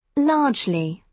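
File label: "largely", British English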